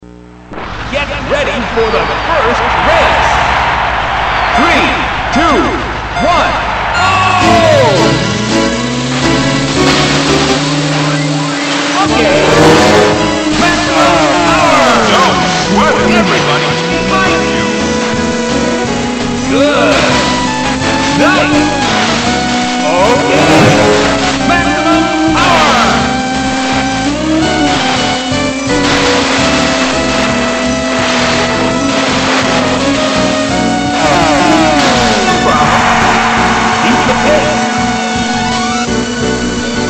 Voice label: announcer; waverace64